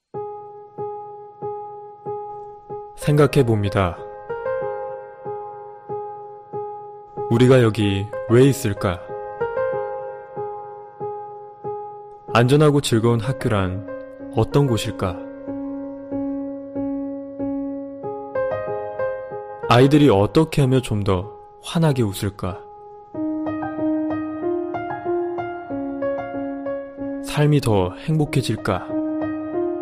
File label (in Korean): 경기도교육청 특수교육 홍보영상 내레이션